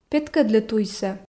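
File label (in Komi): петкӧдлы туйсӧ, Komi, female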